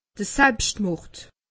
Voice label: "selbstmord", alsace; medecine

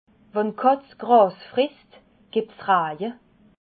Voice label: "gras", Alsace

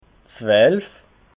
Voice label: "zwelf", alsace

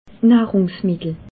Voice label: "nahrungsmittel", alsace

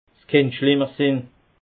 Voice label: "schlimmer", alsace

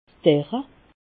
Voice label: "derre", alsace

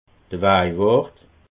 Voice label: "wajwart", alsace

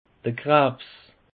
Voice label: "krabs", alsace; peche

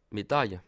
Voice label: "medaille", Alsace

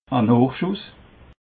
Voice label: "not schuss", alsace

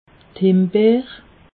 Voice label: "himbeer", alsace

